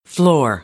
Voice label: "floor", American; AmericanBritish